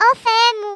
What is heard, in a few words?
oh dear, Speech, Greek; Worms Armageddon